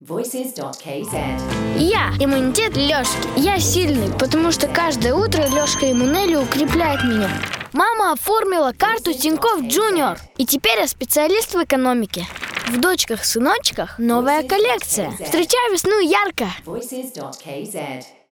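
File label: Russian kid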